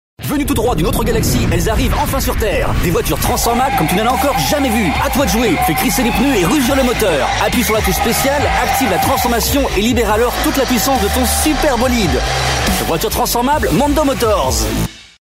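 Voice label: French male TV commercial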